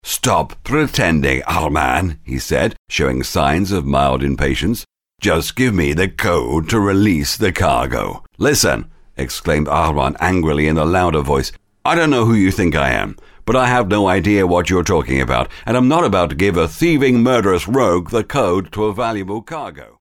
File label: audiobook